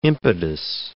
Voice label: "impetus", English

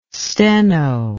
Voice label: "steno", English

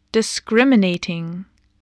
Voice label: "discriminating", english spelling

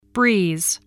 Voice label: "breeze", spelling

